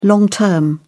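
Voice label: "long term", British English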